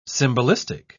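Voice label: "symbolistic", English, British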